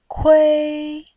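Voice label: "kui1", Mandarin Chinese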